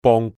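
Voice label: "bong6", Cantonese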